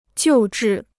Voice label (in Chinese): "救治", Chinese